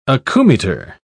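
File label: "acoumeter", British English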